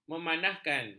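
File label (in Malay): "memanahkan", Malay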